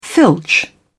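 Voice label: "filch", English, British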